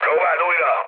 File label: bomb failure, speech hun; War Thunder